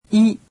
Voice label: "i", japanese; alphabet

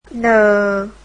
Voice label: "n", vietnamese alphabet

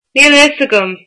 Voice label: irish I dont know

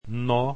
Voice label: "n", Esperanto alphabet

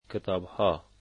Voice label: books, Dari

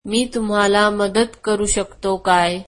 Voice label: marathi can I help you

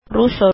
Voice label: "Russian", filipino

